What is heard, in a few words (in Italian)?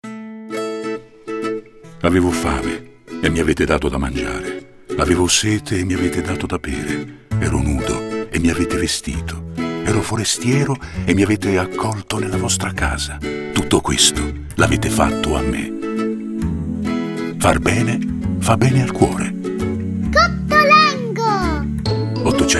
spot